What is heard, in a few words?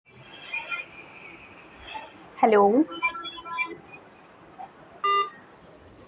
call recording